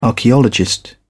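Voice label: "archaeologist", British English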